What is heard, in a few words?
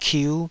"kiu5", taiwanese syllables